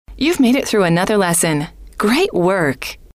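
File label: you've made it through another lesson great work, female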